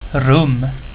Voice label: "rum", swedish; reizen